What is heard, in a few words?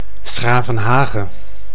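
"'s-Gravenhage", Dutch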